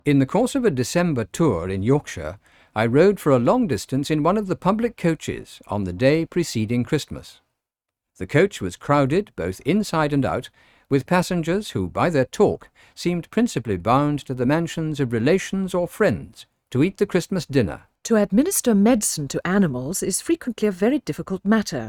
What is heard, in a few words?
rnnoise car 20dB